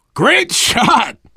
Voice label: nice shot, voice, biker; Left 4 Dead 2; survivor